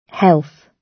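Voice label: "health", British English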